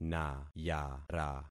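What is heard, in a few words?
"nayara", concatenated syllables; Affixing experiment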